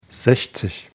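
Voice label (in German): "sechzig", German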